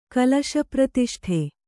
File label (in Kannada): "ಕಲಶಪ್ರತಿಷ್ಠೆ", Kannada